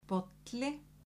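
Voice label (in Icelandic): "bolli", Icelandic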